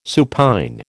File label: "supine", American